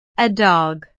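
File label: "a dog", English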